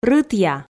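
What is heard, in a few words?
"рытъя", Komi, female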